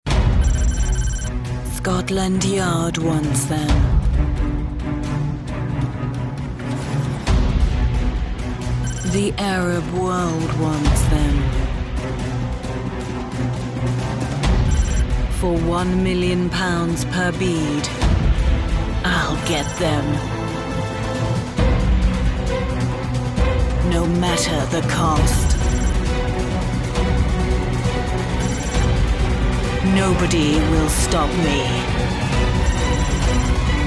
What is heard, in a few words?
Trailer audio; Beads